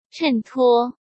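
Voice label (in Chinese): "衬托", Mandarin Chinese; chinese-flashcards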